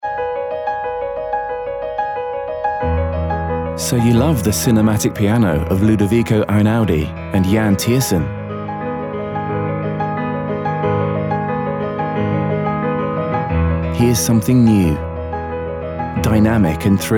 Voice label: Music Commercials

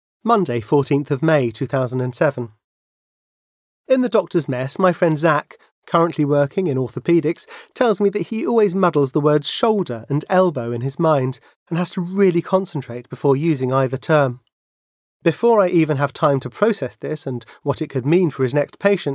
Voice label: audiobook

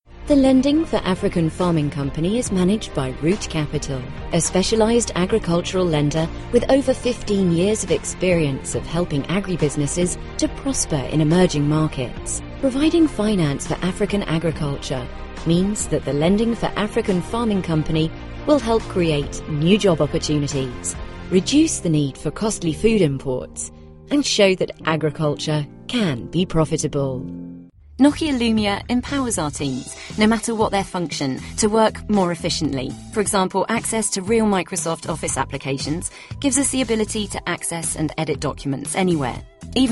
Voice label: Corporate

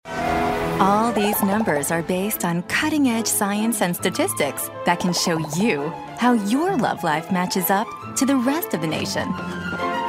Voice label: narration

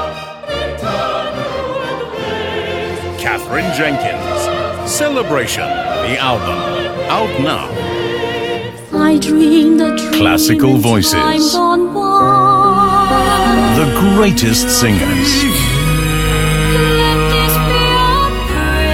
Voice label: Music Commercials

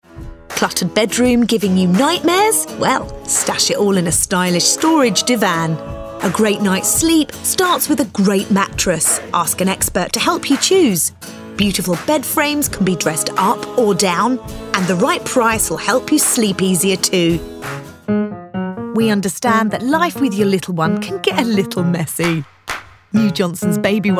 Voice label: Commercials

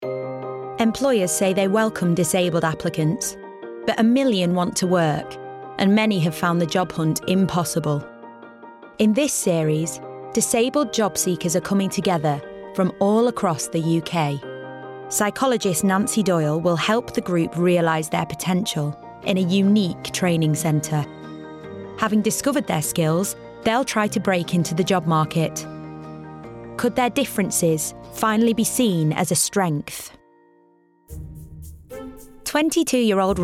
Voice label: Narration

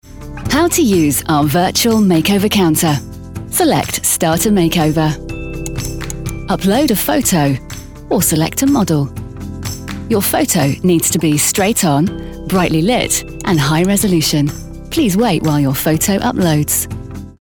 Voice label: corporate

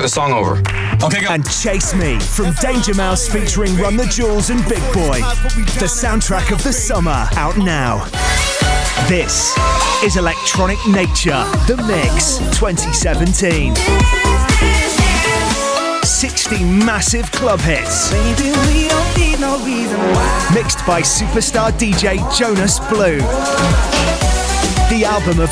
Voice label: Music Commercials

